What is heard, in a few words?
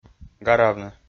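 "гаравны", Komi, male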